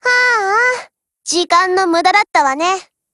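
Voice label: Japanese, Voice; GF Alarm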